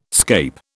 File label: "scape", British